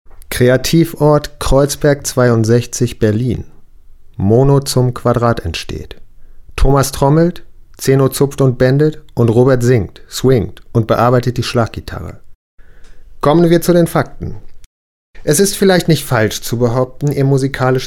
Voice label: locutor aleman german voiceover